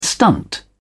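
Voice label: "stunt", English, uk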